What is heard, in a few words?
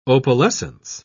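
"opalescence", British English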